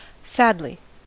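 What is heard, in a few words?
"sadly", American English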